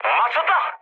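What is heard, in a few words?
Korean aircraft destroyed mood medium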